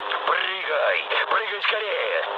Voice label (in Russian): bailout, mood low, speech ru; War Thunder